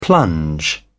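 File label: "plunge", British English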